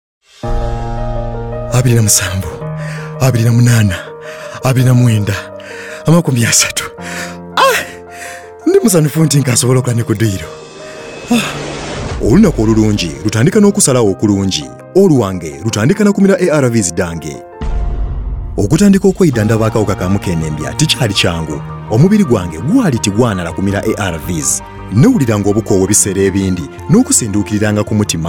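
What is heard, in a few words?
HIV Spot Lusoga, radio spot; New on Treatment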